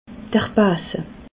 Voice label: "base", alsace

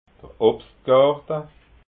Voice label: "obstgarta", alsace

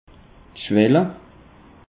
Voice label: "schwell", alsace; peche

